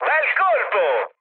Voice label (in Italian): bomb success, mood high, speech it; War Thunder